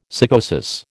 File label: "sycosis", American